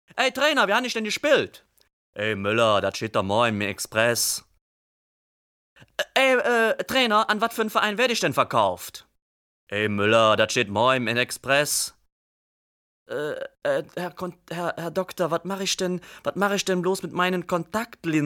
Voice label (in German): dialekt